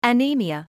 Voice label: "anaemia", English

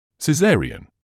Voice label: "caesarean", medical english uk; si-ZAIR-ree-uhn; sɪˈzeə.riː.ən

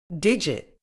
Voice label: "digit", uk

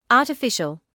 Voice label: "artificial", English

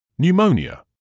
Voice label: "pneumonia", English

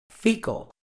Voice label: "faecal", uk

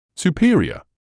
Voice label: "superior", English

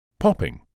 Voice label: "popping", English

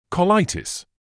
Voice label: "colitis", medical english uk; kuh-LIGH-tuhss; kəˈlaɪ.təs

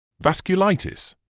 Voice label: "vasculitis", medical English

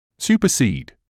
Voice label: "supersede", English

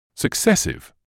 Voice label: "successive", English

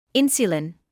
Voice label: "insulin", medical English

